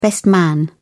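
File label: "best man", British English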